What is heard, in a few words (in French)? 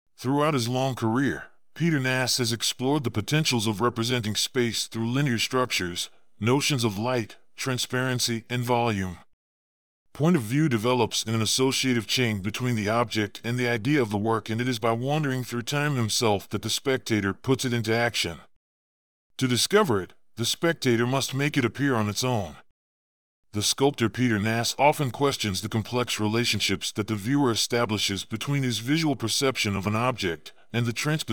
anglais